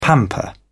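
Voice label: "pamper", British English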